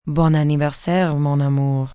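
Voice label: Bday Love French F, female, French; Occasion, event; Holiday; Voice Box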